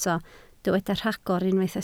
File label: "rhagor", Welsh